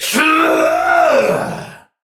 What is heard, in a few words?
Attack Effort Heavy; Tau; Dax; Battle Barks